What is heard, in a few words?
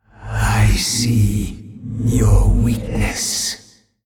Male Old God Creature